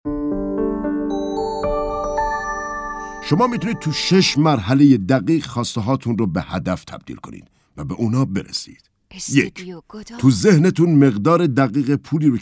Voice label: audiobook